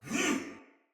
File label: Attack, Soldier Male; Battle; Barks; Dialog; Sinecure Soldier Male B